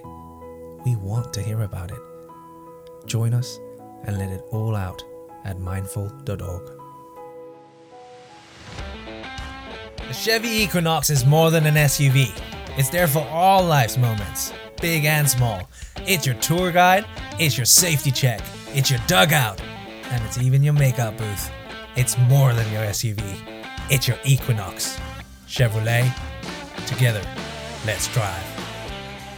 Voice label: male english with undetectable accent commercial compilation